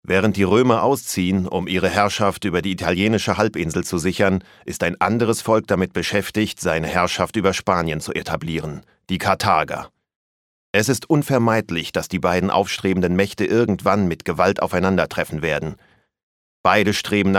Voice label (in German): Erzähler